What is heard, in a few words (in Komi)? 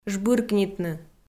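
"жбыркнитны", Komi, female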